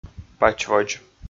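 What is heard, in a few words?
"пач водз", Komi, male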